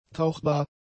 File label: "tauchbar", German; adjectives